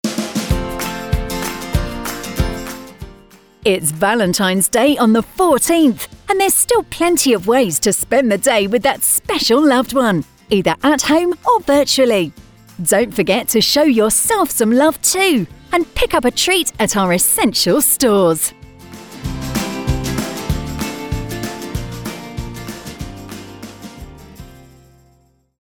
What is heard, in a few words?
Valentines Day Female